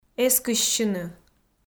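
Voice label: "эскыссьыны", Komi, female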